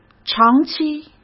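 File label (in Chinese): "长期" Mandarin; chinese flashcards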